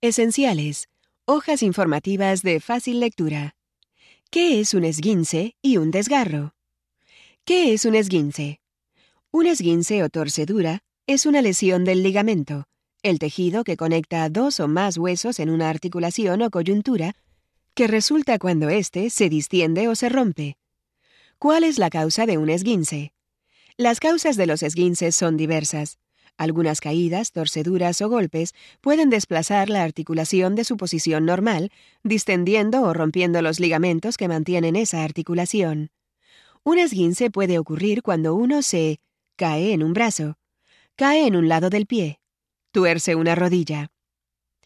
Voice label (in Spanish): strain sprain espanol; niams